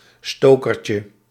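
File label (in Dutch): "stokertje", Dutch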